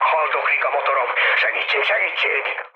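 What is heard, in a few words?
Hungarian damaged engine mood low, speech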